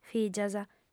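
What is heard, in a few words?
"fìgias", female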